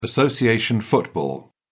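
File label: "association football", British English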